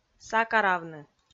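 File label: "сакаравны", Komi, female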